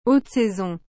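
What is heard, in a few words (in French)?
"haute saison", French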